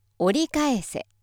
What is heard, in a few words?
female